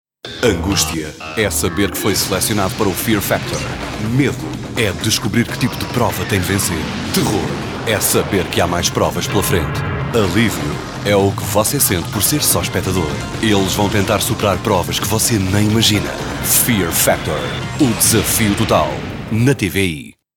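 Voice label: Portuguese promo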